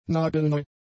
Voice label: "nagelneu", German; adjectives